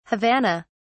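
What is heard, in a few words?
"havana", English, uk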